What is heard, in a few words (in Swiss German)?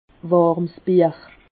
"warmsbier", Alsace